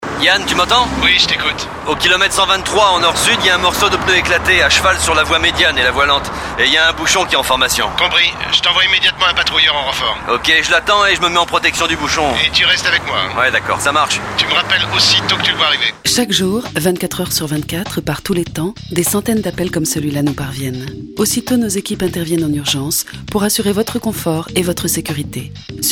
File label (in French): spot radio roulement